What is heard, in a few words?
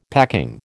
"packing", American